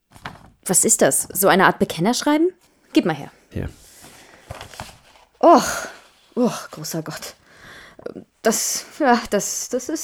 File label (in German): Hoerspiel